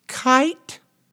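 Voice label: "kite", English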